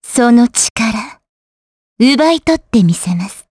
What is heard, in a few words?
Vox Skill, jp; Xerah; kingsraid